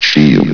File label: "shield", vox